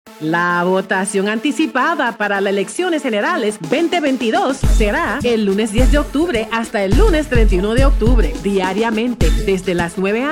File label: spanish radio; general elections 2022